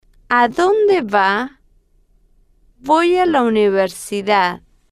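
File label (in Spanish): adonde Va Voy A La Universidad, Spanish, female, slow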